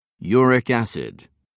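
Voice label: "uric acid", British English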